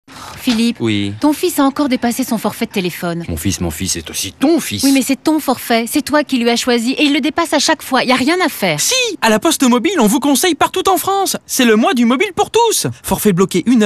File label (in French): radio pub spot